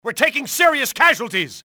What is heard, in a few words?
man down, english male; XBOX; enemy